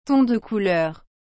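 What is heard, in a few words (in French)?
"ton de couleur", French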